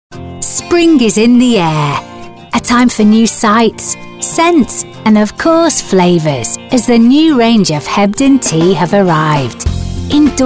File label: uk